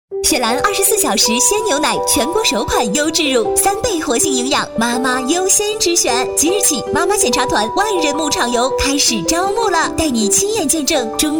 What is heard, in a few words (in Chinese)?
女; 雪兰女成品